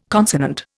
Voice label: "consonant", Indian